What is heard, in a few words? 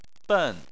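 "ben" fourth tone, Chinese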